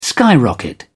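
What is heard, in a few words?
"skyrocket", British English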